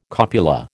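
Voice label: "copula", American English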